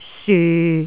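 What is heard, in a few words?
"syu6", Cantonese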